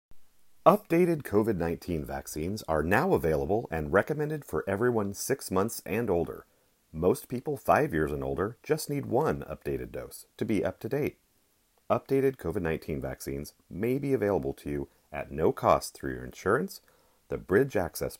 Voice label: Message English